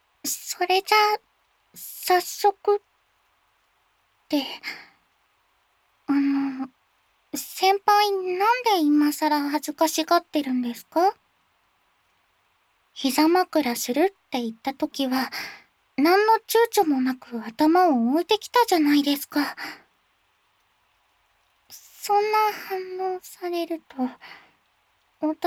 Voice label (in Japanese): お礼に耳かきをしてあげますっ(耳かき), ASMR